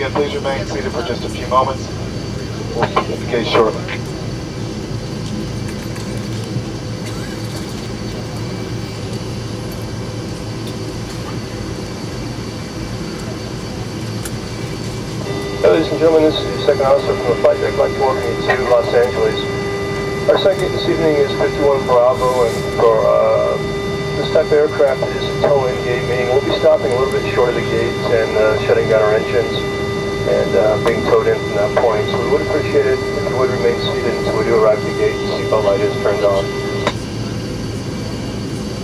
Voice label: airplane interior welcome; best service Blue Box